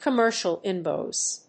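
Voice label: "commercial invoice", English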